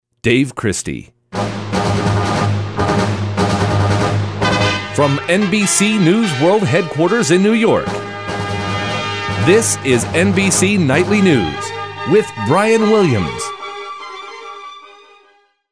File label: Nightly News Open; NBC; Auditions